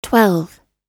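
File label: "twelve", US English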